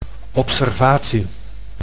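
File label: "observatie", Dutch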